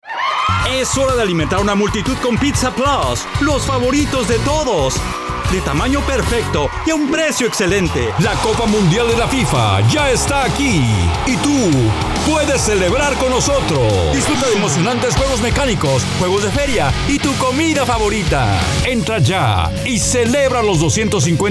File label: Spanish